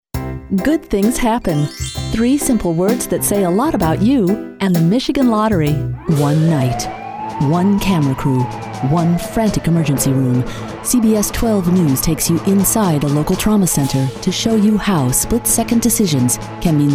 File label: Commercial Minute